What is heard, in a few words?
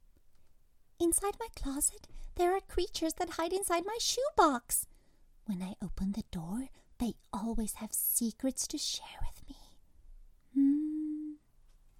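Characters, English US; GoLocalise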